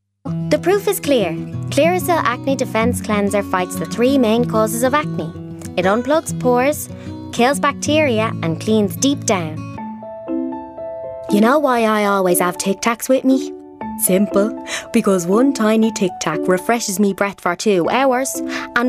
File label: Commercial Reel